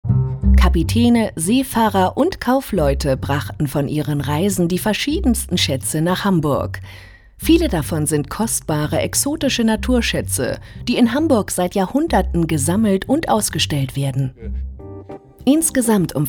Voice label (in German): Imagefilm